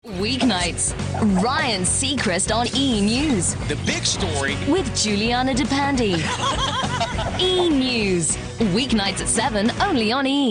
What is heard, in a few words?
Commercial